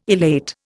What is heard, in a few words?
"elate", Indian